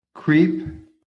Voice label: "creep", American

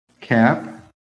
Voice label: "CAP", American

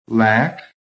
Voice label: "LACK", american

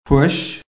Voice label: "PUSH", american; PHONETIC REFERENCE

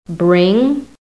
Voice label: "BRING", american; PHONETIC

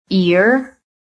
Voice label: "EAR", american

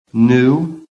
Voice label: "new", American

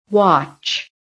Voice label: "watch", American

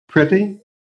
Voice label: "pretty", phonetic

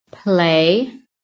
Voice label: "PLAY", american

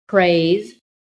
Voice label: "BRAVE", PHONETIC

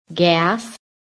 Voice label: "GAS", american

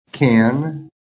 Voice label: "CAN", american

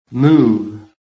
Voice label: "MOVE", american

